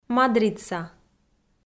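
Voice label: "мадридса", Komi, female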